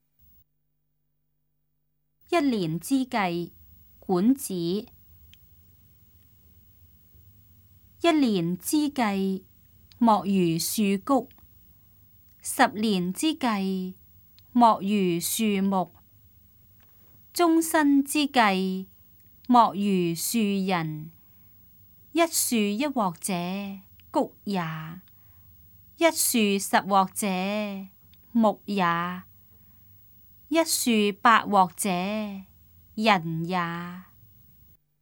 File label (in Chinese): cantonese; 一年之計; 粵語